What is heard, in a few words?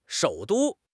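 "shou3 du1", Chinese; HSK4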